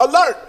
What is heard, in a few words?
"alert", voice